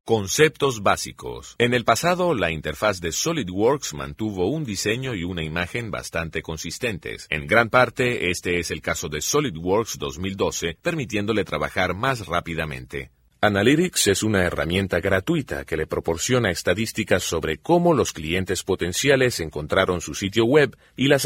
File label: spanish latin venezuelan elearning